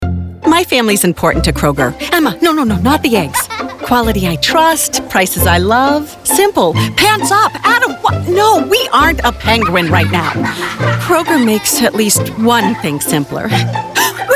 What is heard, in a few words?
Amusing; Kroger